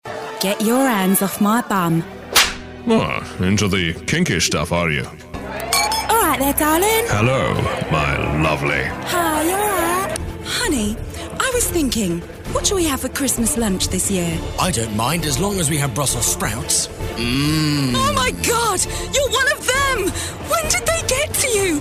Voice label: character voices